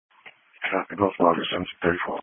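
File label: Police Patrol Dispatch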